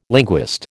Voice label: "linguist", American